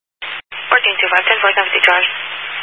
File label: Highway Patrol, Phoenix Metro West Area, scanner